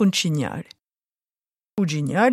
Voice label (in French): "cignali", Moita Verde (nord)